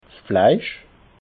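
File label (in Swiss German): "fleisch", Alsatian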